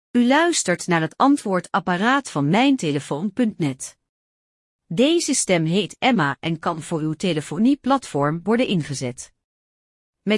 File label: Voice Prompts, NL; Voiceprompt voorbeeld